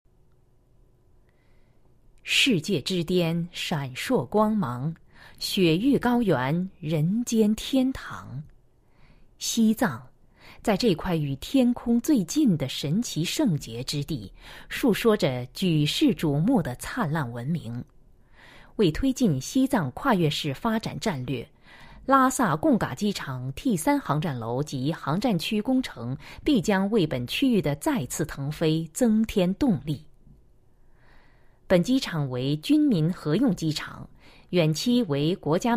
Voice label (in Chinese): 女, 国语女声, 成熟知性; 藏韵天空